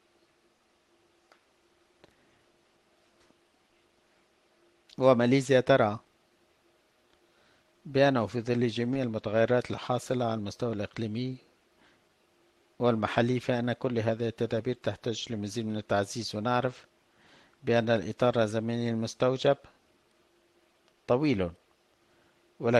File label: Arabic